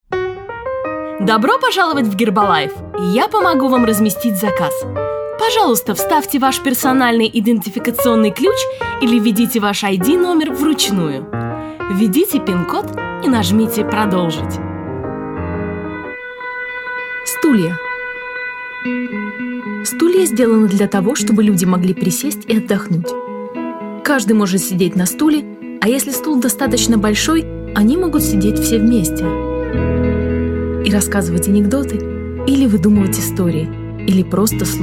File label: ru narration